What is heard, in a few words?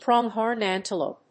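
"pronghorn antelope", English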